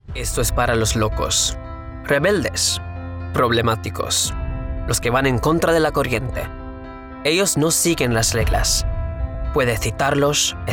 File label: Spanish